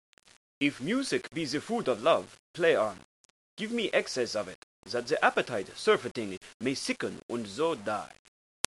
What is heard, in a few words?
music, german